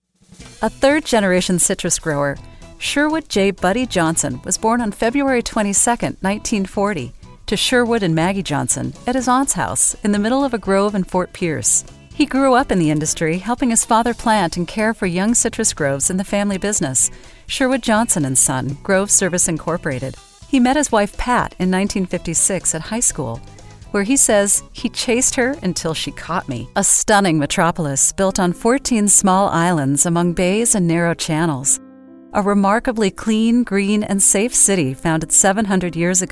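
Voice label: us corporate, American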